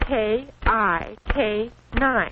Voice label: k i k 9, Quirky Female Typing Instructor; VOCALS and SPOKEN WORD

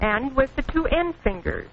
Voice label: two end fingers, Quirky Female Typing Instructor; VOCALS and SPOKEN WORD

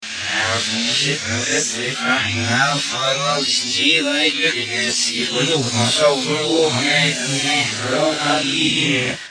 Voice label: making u mean spooky speech; REMIXABLE COLLECTIONS; Kraddy Collection

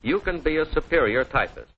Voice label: superior typist, Quirky Female Typing Instructor; VOCALS and SPOKEN WORD

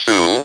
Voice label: speak and spell "two"; NUMBERS; VOCALS and SPOKEN WORD

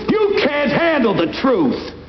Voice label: you cant handle truth, male spoken word; VOCALS and SPOKEN WORD

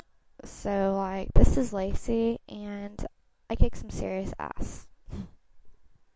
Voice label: kick some ass, VOCALS and SPOKEN WORD, FEMALE; normalized -3db; female misc and spoken word

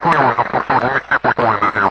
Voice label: radio voice, Distorted Radio Voice; VOCAL

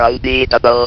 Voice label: "UNBEATAB", votrax; VIDEO GAMES

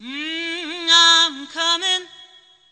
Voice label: diva mmm im coming, Cheesy Divas; VOCALS and SPOKEN WORD

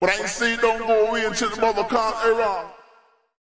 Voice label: ragga vox, Rastafarian; VOCALS and SPOKEN WORD

